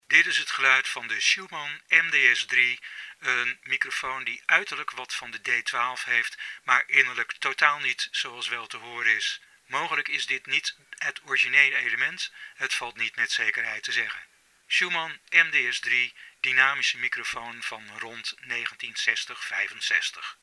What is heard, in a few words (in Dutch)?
Schumann MDS-3 orig sound NL